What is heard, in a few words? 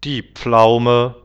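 "die Pflaume", German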